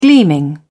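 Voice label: "gleaming", British English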